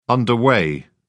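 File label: "underway", British English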